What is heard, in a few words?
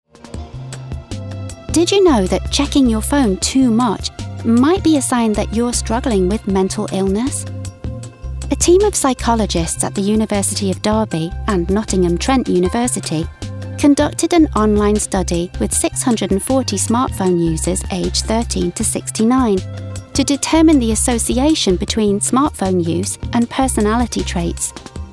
explainer